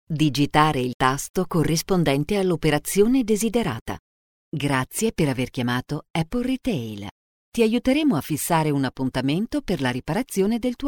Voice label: Phone system IVR